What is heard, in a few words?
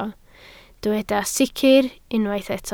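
"sicr" Welsh, spoken word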